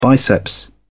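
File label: "biceps", British English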